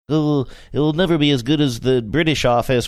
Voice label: british snobby

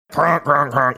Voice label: imitating pilot barking words

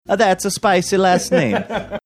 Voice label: saying thats a spicy last name bad italian accent

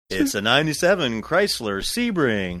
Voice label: saying it's a 97 chrysler sebring tv game show

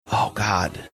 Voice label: quiet oh god

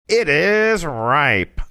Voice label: saying it is ripe nose pinched closed

